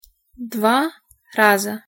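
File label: "dva raza", Russian pronunciation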